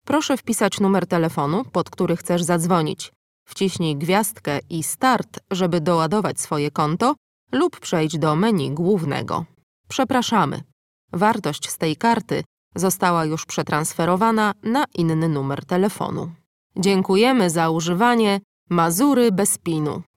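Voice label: Polish IVR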